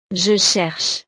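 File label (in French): "je cherche", French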